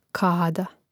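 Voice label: "kada", Croatian; mreznik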